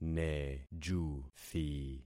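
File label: "nejuthi", concatenated syllables; Affixing experiment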